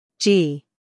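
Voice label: "g", American English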